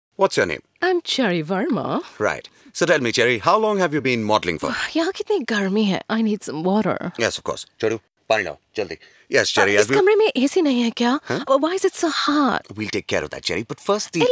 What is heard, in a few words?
Interview; male voice; Spice Interview